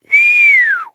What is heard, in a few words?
Horse Stop Adult; Duviri